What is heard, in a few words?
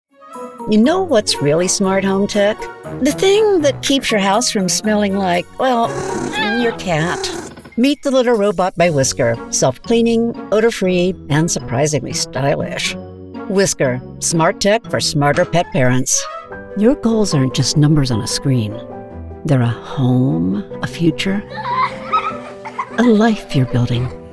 Commercial, female voices